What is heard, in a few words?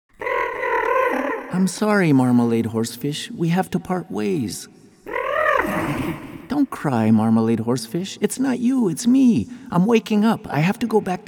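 commercial English; PG Tips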